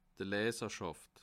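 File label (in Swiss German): "leserschaft", Alsatian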